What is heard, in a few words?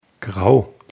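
"grau", German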